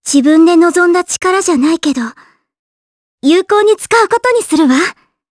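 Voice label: voice get, voices heroes, Japanese; Kirze; kingsraid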